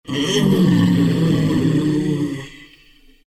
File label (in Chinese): happy; zh; Dota 2